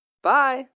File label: "Bye" Child, Goodbyes, child, boy